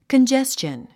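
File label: "congestion", English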